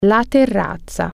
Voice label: patio, italian

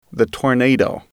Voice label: "tornado", English

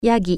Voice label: goat, japanese

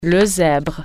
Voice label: zebra, french

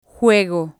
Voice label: "juego", spanish

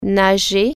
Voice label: swim, french

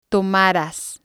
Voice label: "tomaras", Spanish

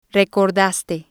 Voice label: "recordaste", spanish

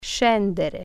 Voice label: go down, italian

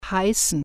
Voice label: "be called", german

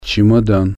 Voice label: "suitcase", Russian

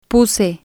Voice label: "puse", spanish

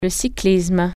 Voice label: cycling, french

